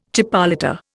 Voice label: "chipolata", Indian